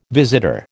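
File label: "visitor", American English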